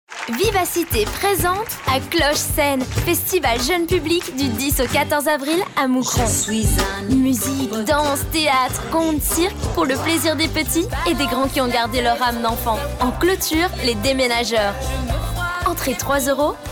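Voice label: French, female, commercial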